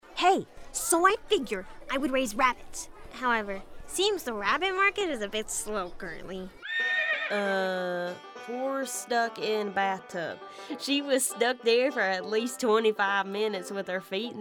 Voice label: Character, Voiceover; Singer, Actress, Voiceover